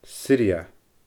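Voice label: "Siridhea", Scottish Gaelic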